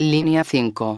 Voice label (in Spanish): linea 1, megafonias; exterior